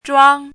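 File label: "zhuāng", Mandarin Chinese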